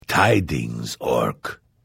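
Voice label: Hello, Vo; Morrowind GOTY GOG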